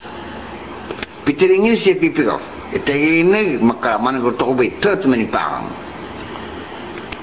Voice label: Tobian; Piteringires epipihaf, etei henee makara manhatohobei tot men pahang; Peeter Inglish yepeelif tang ah nee makree ah tobee ah pahngul; The earliest-known examples of Tobian speech: Dialogues in the Language of Lord North's Is

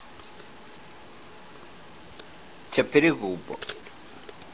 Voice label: "chapirihub", Tobian; thigh; Ewoutu chapirihubar. He hit him on the thigh.

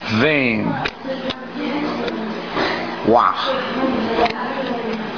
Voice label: "waah", Tobian; vein; Quackenbush Word List No. 28

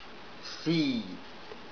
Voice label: "siie", Tobian; belly

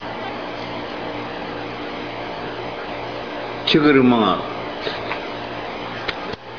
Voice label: "chuhur mangau", Tobian; stomach; See No. 56 of the Quackenbush Word List

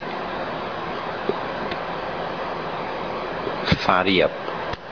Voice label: "Fariyap", Tobi; Apple tree; Fishing Lore of Tobi

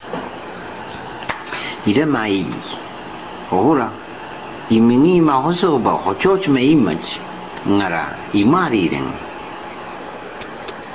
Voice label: Tobian, dialogue; Iramaie, hohura imengi ma hosoh ba hochoch meimach, ngara imari ireng; That's right, but you know, I was thinking about this and we also say hochoch ripe breadfruit and I don't know why.; Dialogue About Two Words for Eating: mangau and hochoch

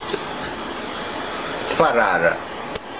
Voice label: "farar", Tobian; claw; Imetah farfari moyo. I am afraid of the cat's claws.

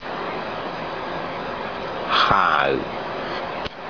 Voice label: "Haus", Tobi; Mast; Fishing Lore of Tobi

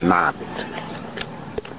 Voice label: "mat", Tobian; eye

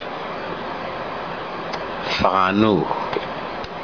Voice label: "Fanunuh", Tobi; One-half of distance from Ruuh to Hau; Justin spells this Fahanuh; Fishing Lore of Tobi

